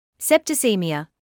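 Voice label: "septicaemia", British English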